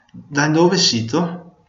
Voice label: where from, venetian